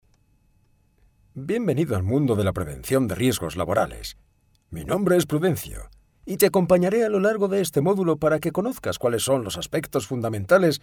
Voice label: locutor voz viejo spanish old voiceover, e-learning; E-learning course. Old voice over